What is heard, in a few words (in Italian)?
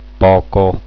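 "poco", Italian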